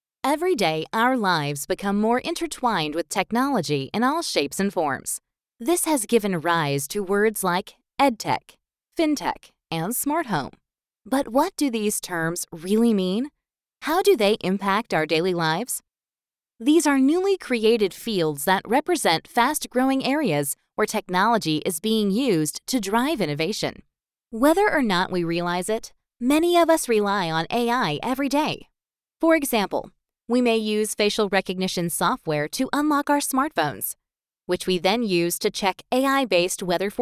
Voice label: Corporate Samples, Female; professional female voice actress; custom home studio